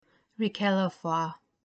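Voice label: Rikelho Fua, pronunciation guide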